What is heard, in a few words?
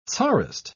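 "tsarist", British English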